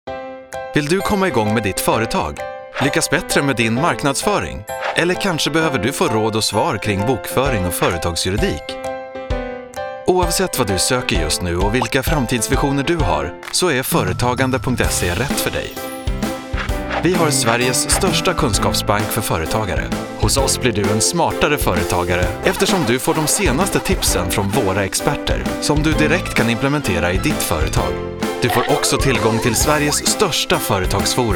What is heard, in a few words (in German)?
Freundlich